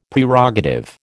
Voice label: "Prerogative", American